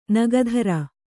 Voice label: "ನಗಧರ", Kannada; nagadhara

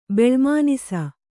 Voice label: "ಬೆಳ್ಮುನಿಸು", Kannada

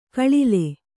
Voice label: "ಗಳಲೆ", Kannada

